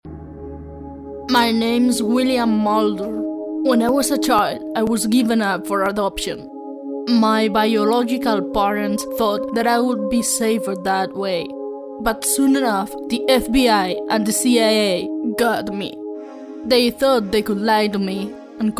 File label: ENGLISH WITH MUSIC